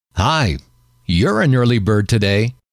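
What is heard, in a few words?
you Re An Early Bird Today, male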